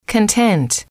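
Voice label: "content", English, female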